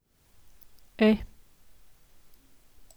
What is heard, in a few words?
"è", French